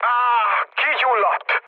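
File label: Hungarian on fire mood low, speech; War Thunder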